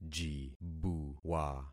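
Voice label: "jibuwa", concatenated syllables; Affixing experiment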